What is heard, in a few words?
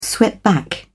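"swept back", British English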